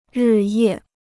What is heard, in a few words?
"日夜", Chinese